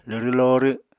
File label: "lwrw lorw", Apatani